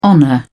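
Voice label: "honour", British English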